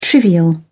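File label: "trivial", British English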